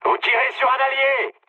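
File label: player friendly fire, mood low, French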